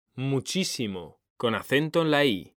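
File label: "muchísimo", Spanish, male; mekanta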